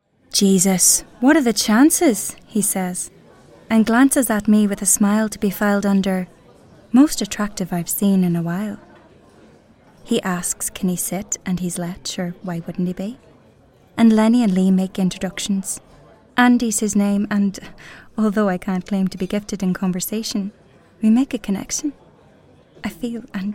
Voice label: Narration